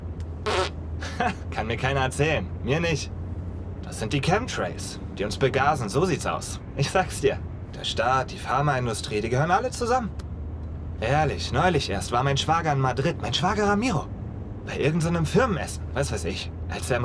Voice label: Taxifahrer kurz Hoerprobe; 1992 (Netflix) - Taxifahrer; Lip-Sync (Synchron); German

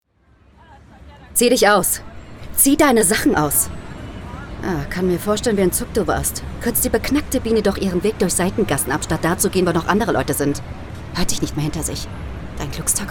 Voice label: drohend; LOFT VOICES

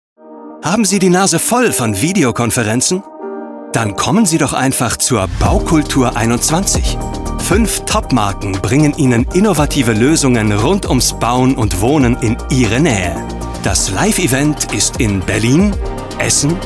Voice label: Radiospot laut; BauKulTour

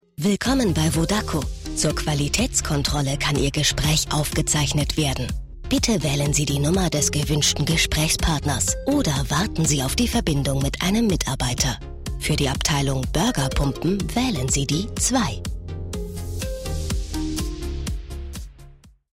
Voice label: Telefonansage; VODACO

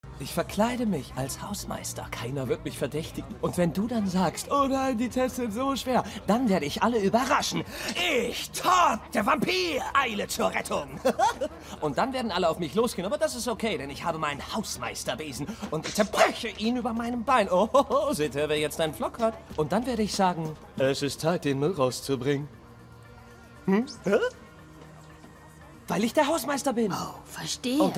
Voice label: Comedy verspielter Freund

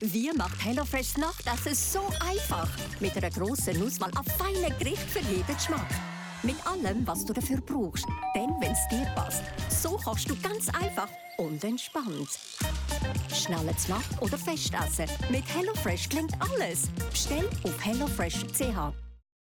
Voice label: TV Spot; HelloFresh; LOFT VOICES

